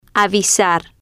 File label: "avisar", spanish